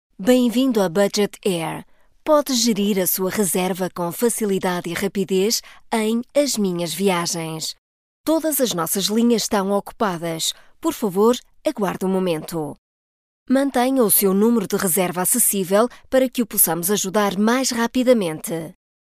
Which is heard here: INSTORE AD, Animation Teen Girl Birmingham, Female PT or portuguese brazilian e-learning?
Female PT